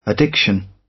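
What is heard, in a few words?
"addiction", British; Technology; Công nghệ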